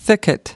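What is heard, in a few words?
"thicket", US English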